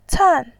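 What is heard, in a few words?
"can", Mandarin Chinese, tone 4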